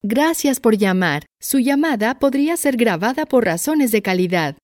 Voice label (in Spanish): saludo llamada sera grabada